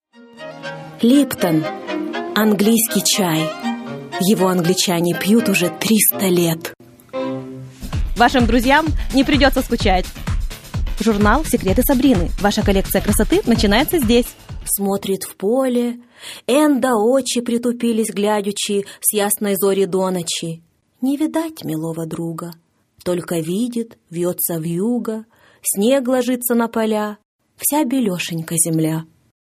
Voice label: Russian